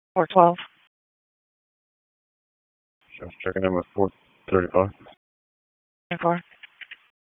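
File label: Raleigh Police Southeast District Dispatch, p25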